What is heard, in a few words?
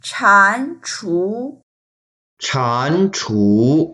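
"chan2chu2", Mandarin Chinese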